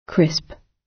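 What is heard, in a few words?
"crisp", British English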